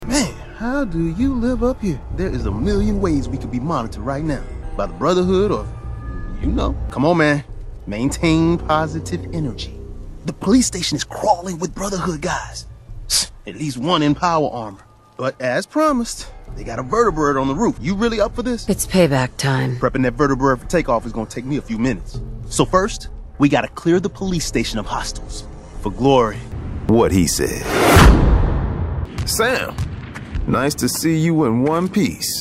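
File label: animation men interactive, voices men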